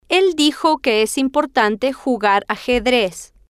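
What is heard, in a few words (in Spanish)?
el dijo que es importante jugar ajedrez, Spanish, female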